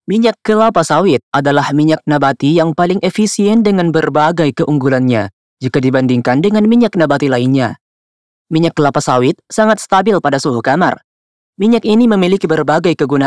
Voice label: Formal Indonesia